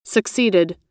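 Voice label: "succeeded", American English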